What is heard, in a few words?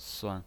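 "suan", Mandarin Chinese pinyin, neutral tone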